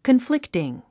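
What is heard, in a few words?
"conflicting", English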